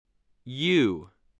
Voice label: "ewe", voice, english

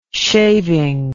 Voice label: "shaving", words

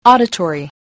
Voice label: "auditory", english

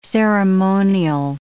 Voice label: "ceremonial", English

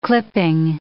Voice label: "clipping", English

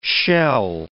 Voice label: "shell", English

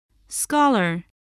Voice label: "scholar", english spelling

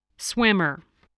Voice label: "swimmer", English

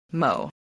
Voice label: "mow", voice, spelling

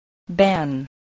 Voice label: "ban", english

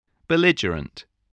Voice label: "belligerent", English

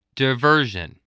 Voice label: "diversion", spelling

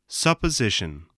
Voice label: "supposition", English

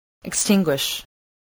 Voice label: "extinguish", spelling

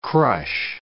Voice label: "crush", english

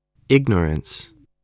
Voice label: "ignorance", voice spelling

